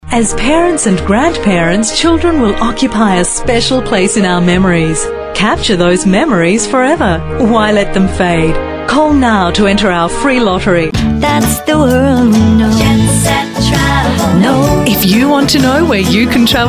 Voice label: TVC Radio